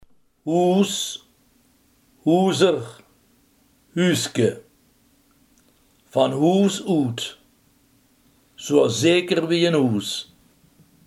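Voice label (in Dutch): huis, Limburgse gesproken woordenboeken; huis, hoes (hoezer, huuske); Van hoes oet.; Zoea zeker wie ein hoes.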